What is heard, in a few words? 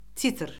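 "titr", Azerbaijani; Orfoqrafiya Lüğəti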